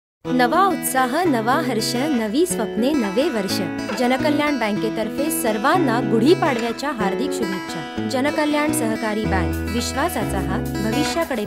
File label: Commercial